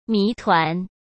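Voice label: "谜团", Mandarin Chinese; hsk; chinese flashcards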